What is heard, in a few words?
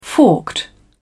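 "forked", British English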